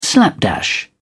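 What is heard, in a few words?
"slapdash", British English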